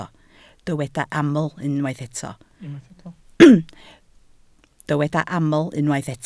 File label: "aml", Welsh